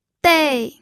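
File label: "dei", pinyin, fourth tone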